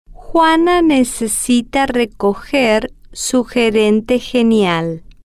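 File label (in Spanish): necesita recoger su gerente, Spanish, female, slow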